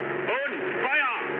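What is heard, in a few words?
German attack position mood med, speech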